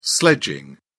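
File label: "sledging", British English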